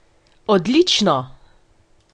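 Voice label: awesome, Finnish; gcompris-data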